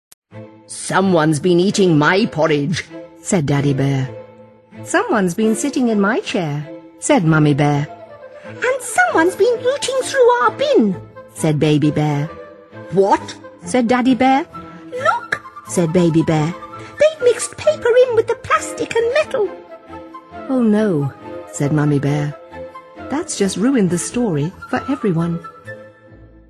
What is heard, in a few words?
story, readings